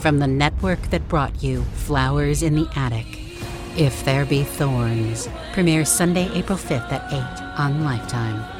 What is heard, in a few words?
Movie Trailer; If There Be Thorns